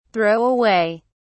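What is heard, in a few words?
"throw away", British English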